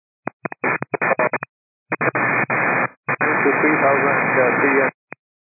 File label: 122 925MHz, scanner radio